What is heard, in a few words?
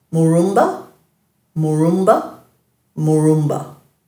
"murumba", Dhurga